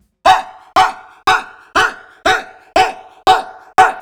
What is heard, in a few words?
"Hoy", Vocals Male; Sonic Foundry (Sony Creative Software); World Pop